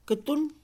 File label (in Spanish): muñeca, Mixe, Mujer; Cuerpo; INALI